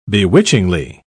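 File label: "bewitchingly", British English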